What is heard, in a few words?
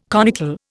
"conical", Indian